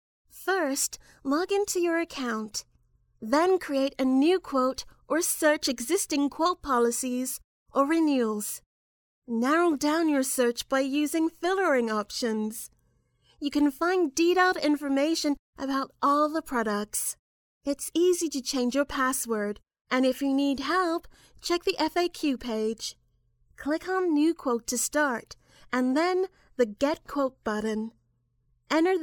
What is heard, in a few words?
english us and canada female e learning